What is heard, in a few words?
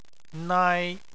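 "nai", Chinese, first tone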